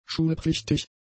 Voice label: "schulpflichtig", German; adjectives